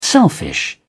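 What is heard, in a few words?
"selfish", British English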